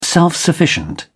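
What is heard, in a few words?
"self-sufficient", British English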